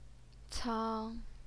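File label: "cang", Mandarin Chinese, first tone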